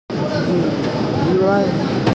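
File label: "xu3yuan4", Mandarin Chinese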